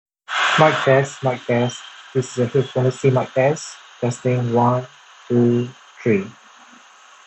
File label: hifiman tws600 noisy